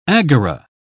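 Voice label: "agora", British English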